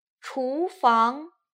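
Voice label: "chufang", Mandarin pinyin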